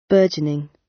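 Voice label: "burgeoning", British; The arts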